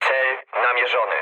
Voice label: near waypoint mood low, speech pol; War Thunder